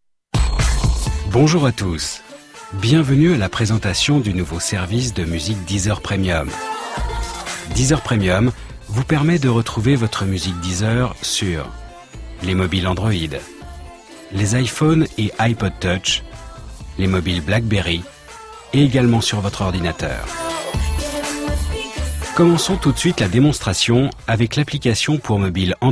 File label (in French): Voix Off Corporate; Deezer Premium